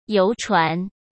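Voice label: "游船", Mandarin Chinese; hsk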